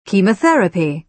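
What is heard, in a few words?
"chemotherapy", British English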